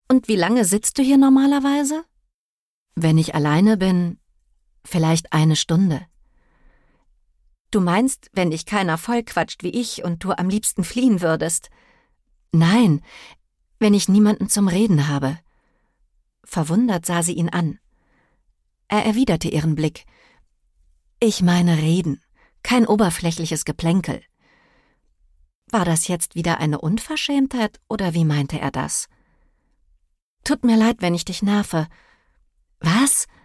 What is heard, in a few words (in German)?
Hoerbuch; Das kleine Cafe am Meer